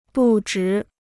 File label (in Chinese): "不值", Chinese